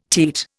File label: "teat", Indian